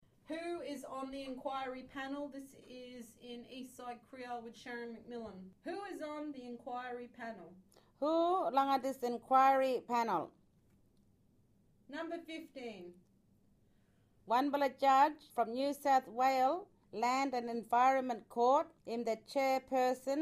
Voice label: Kriol; Fracking